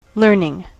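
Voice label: "learning", US English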